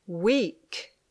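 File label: "weak", English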